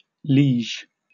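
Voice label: "liege", British English; pronunciations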